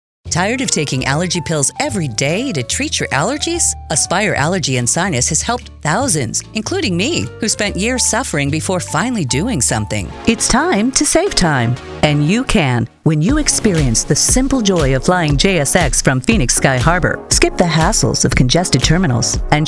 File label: Commercial, Female voices